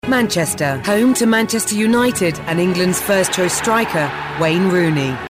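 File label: English UK, Kommentar, Neutral; Fremdtake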